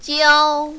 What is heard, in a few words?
"jiao1", mandarin, female